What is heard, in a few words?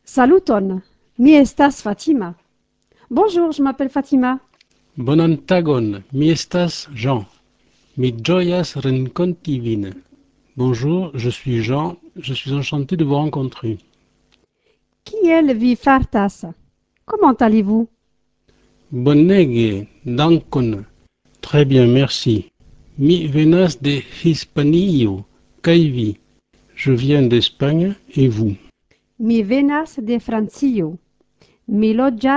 dialogue, esperanto